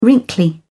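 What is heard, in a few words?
"wrinkly", English, British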